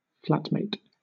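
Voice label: "flatmate", British English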